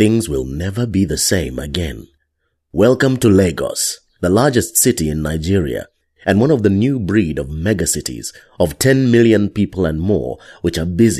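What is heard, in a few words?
Nigerian, Narration, Deep tone. Warm, assured, with plenty of gravitas.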